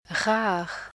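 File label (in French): "rare", French; ʁ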